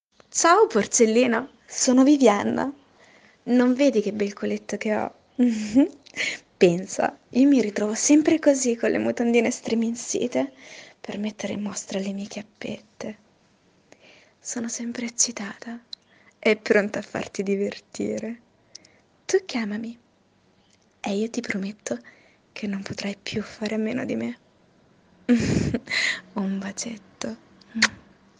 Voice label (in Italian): telefono erotico